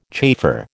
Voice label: "chafer", American